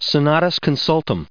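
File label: "senatus", US English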